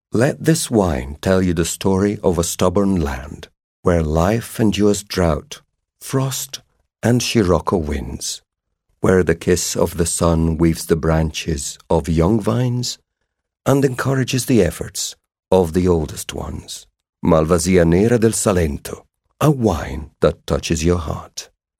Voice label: Commercial Evocative